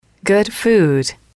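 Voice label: "good food", English, female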